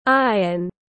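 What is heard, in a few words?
"Iron", English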